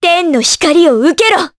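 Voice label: Vox Skill, voices, Japanese; kingsraid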